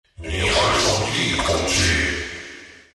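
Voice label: attack, chinese; dota2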